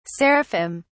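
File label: "seraphim", British English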